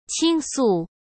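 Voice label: "倾诉", Mandarin Chinese; chinese flashcards; hsk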